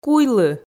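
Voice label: "куйлы", Komi, female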